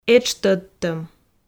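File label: "этш тӧдтӧм", Komi, female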